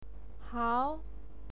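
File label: "hao", Mandarin Chinese pinyin, second tone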